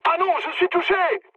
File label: aircraft destroyed mood med, speech fr; War Thunder